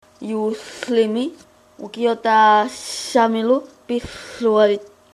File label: christmas, greenlandic